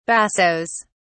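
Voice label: "bassos", British English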